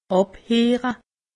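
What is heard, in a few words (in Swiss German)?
"abheere", Alsatian; medecine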